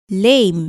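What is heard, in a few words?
"lame", English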